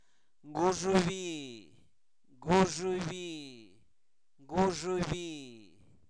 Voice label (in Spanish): Zapoteco, santo domingo albarradas; INALI; sustantivos; siembra